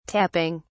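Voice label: "tapping", British English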